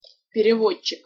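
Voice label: "perevodcik", Russian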